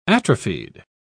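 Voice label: "atrophied", English, British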